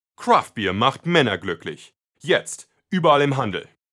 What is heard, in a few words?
Product CM